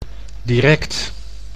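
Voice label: "direct", Dutch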